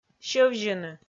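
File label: "сьӧвзьыны", Komi, female; kpv audio dict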